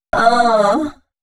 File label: female c# 138, Dance Ultra Voice; Mutekki Media